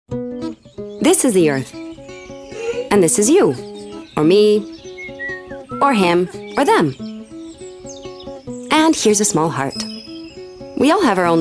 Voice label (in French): voix dynamique; Arte